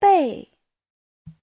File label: "bèi", Chinese; 被; Giáo trình chuẩn HSK3